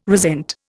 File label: "resent", Indian